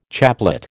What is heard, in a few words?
"chaplet", American